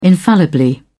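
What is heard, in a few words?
"infallibly", British English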